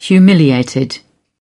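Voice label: "humiliated", British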